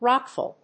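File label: "rockfall", English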